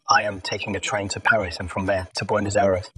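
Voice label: VoiceActing es, Male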